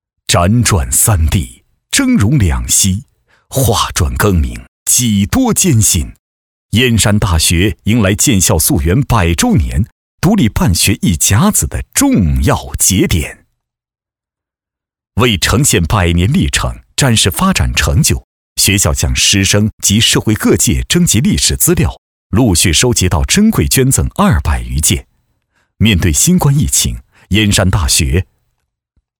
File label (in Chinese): 男-学校旁白